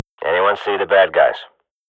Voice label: radio bot query, swat; Global Offensive; player vo

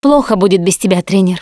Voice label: Survivor Mourn, russian; Voice; Producer; Coach

